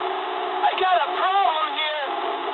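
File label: aircraft damaged mood low, speech English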